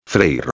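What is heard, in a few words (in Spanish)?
"freir", Spanish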